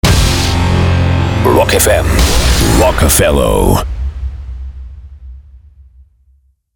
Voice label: rock fm liner; rockafellow